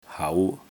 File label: "hau2", cantonese